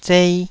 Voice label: "zei2", taiwanese syllables

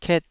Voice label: "ket2", taiwanese syllable

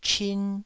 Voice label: "chin1", taiwanese

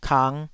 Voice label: "kang5", taiwanese syllable

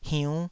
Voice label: "hiunn5", Taiwanese syllable

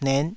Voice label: "net5", taiwanese syllables

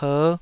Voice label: "per5", Taiwanese

